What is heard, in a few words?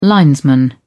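"linesman", British English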